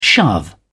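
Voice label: "shove", British English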